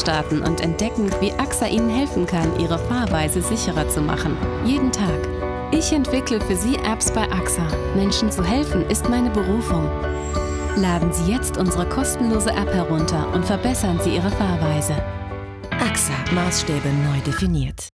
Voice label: drive tv spot; axa